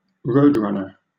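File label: "roadrunner", British English; pronunciations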